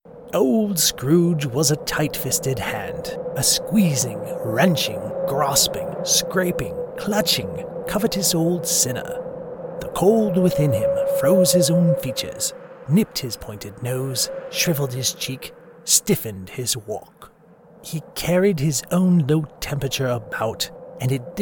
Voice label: Character Narration